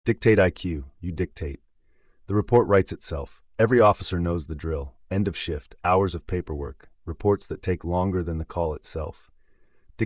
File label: narration